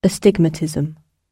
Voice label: astigmatism, gb